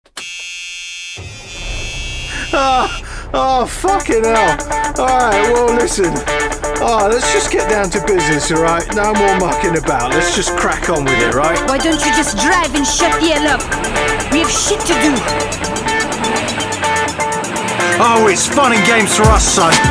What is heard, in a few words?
French Girl; en-GB